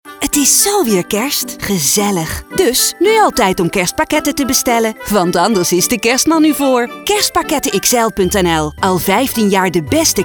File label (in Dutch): reclame spot noord nederland; kerstpakketten xl